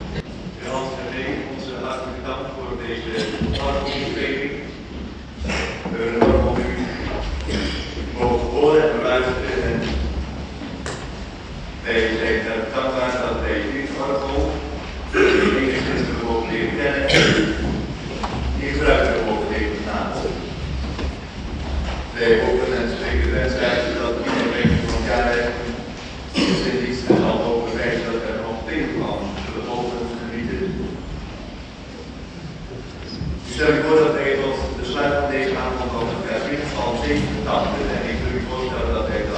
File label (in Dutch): toespraak; nederlands; assen